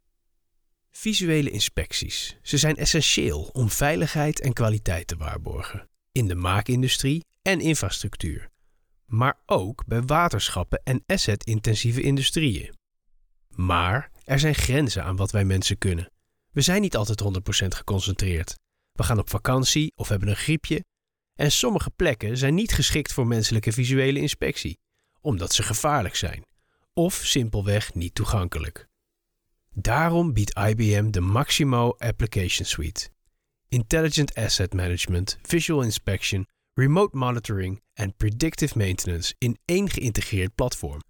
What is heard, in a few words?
corporate; IBM